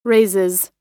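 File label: "raises", American English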